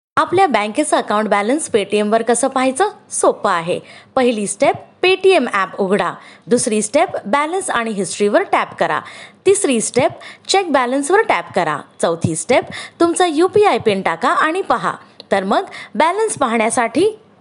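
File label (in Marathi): Marathi advert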